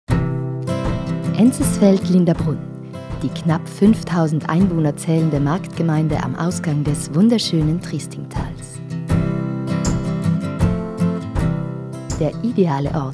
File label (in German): Imagefilm, Voice Over; Sprecherin; Enzesfeld-Lindabrunn eine Gemeinde stellt sich vor